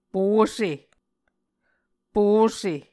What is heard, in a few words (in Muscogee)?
"pose", Muscogee